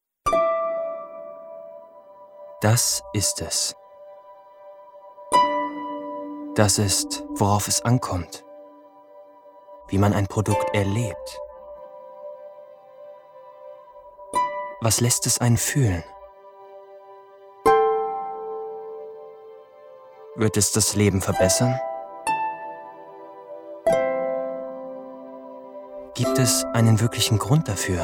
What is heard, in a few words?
COMMERCIAL OFF; Apple Our Signature